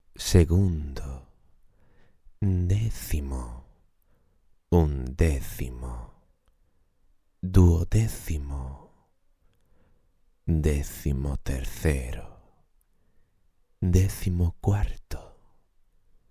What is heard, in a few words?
SPANISH